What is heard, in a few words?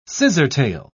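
"scissortail", British English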